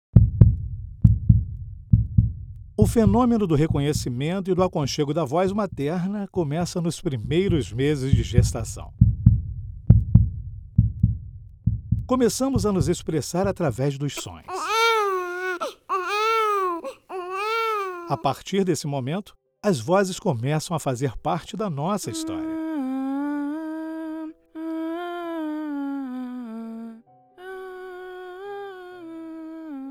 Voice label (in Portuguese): Locução Dia das Mães